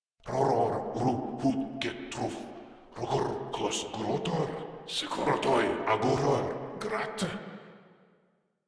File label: Patrol Grineer Male, Barks, Battle